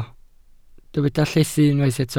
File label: "llethu", Welsh